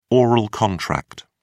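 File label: "oral contract", British English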